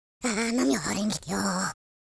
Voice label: pray, speech; Confucious

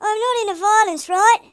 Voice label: grenade, Hippy

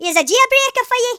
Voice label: "fire", Geordie; speech

